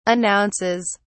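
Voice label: "announces", British English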